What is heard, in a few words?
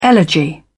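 "elegy", British English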